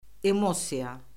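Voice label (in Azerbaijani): "emosiya", Azerbaijani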